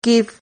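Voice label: "kif", syllable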